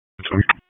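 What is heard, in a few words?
Dispatch 1, P25; wake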